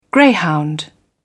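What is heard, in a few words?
"greyhound", British English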